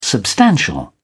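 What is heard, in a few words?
"substantial", British English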